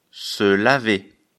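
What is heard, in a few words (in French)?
"laver", French; afrikalan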